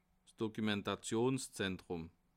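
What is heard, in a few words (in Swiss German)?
"dokumentations", Bas Rhin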